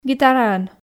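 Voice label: "гитараӧн", Komi, female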